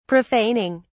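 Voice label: "profaning", English, British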